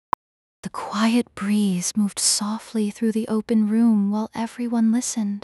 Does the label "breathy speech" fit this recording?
Yes